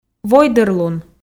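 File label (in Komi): "войдӧрлун", Komi, female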